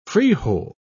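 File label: "frijol", British English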